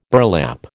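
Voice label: "burlap", American English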